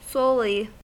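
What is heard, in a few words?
"solely", US English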